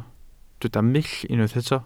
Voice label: "mull", Welsh